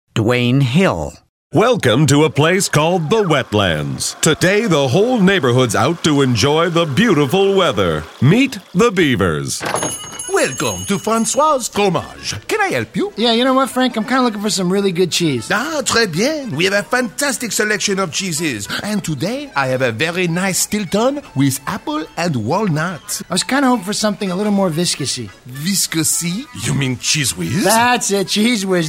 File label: animation